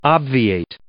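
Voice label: "obviate", English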